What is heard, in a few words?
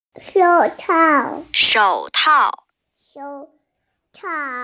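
"shǒu tào", Mandarin Chinese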